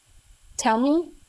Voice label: Voice Acting, es, F0